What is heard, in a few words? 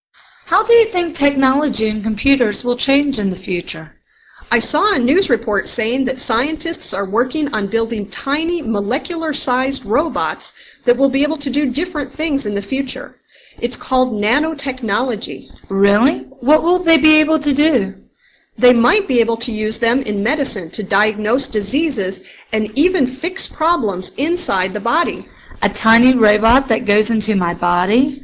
Dialog